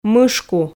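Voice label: "мышку", Komi, female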